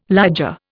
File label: "liger", Indian